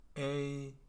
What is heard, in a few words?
ei nasal, ipa